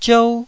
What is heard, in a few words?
"ziou1", Taiwanese